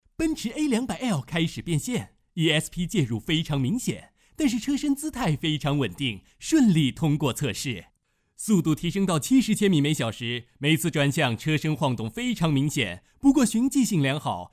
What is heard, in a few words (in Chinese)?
画外音; 奔驰丰田麋鹿测试